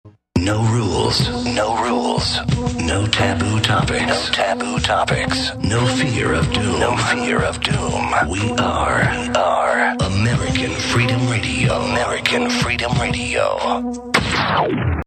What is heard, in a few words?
promo